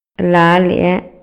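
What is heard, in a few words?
Maya, male; INALI; MayaYuc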